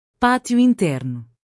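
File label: "patio interno", Portuguese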